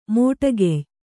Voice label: "ಮೋಟಕೆಯ್", Kannada

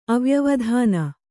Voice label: "ಅವ್ಯವಧಾನ", kannada

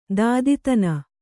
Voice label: "ದೂತತನ", Kannada

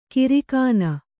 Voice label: "ಕಾರಕೂನ", Kannada

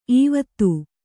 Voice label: "ಈವೊತ್ತು", Kannada

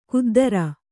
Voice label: "ಖುದ್ದರ", Kannada

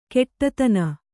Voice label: "ಘಟ್ಟಿತನ", kannada

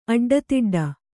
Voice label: "ಅಡ್ಡದುಡ್ಡು", kannada

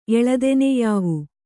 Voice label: "ಎಳದೆನೆಯಾವು", kannada

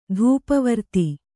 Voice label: "ಧೂಪವರ್ತಿ", Kannada

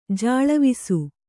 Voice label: "ಜಾಳವಿಸು", kannada

